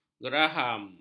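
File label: "geraham", Malay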